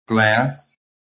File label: "GLASS", phonetic